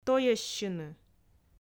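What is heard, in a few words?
"тойӧссьыны", Komi, female; audio dict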